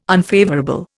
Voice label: "unfavourable", Indian